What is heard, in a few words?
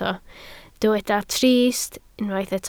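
"trist" Welsh, spoken word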